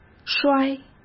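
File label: "摔", Mandarin Chinese; chinese-flashcards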